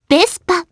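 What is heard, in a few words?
Vox Japanese; Frey; Vespa; kingsraid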